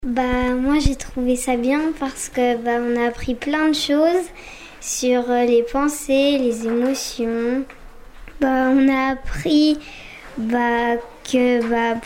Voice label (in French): témoignage enfant